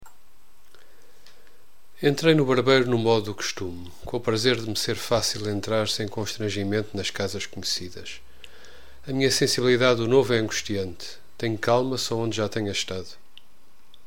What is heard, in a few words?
saudades voz, portuguese; F Pessoa; Desassossego